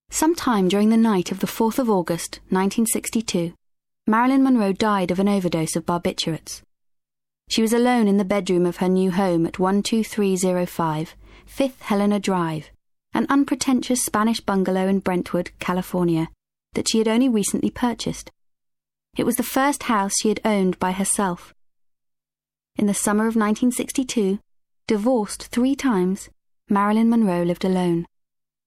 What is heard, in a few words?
narrative